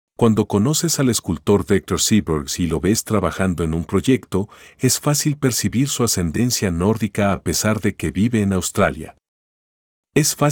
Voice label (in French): espagnol